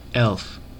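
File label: "elf", US English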